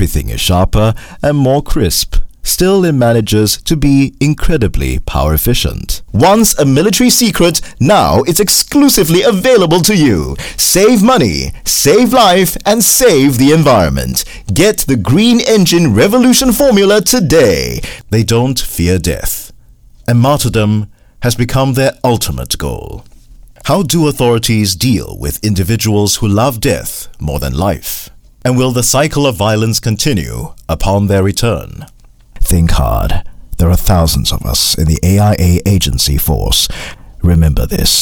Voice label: Asian English cold voice over reel casual hype docu drama